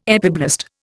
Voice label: "epiblast", Indian